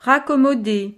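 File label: "raccommoder", French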